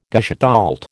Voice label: "gestalt", American